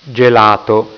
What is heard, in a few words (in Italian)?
"gelato", italiaans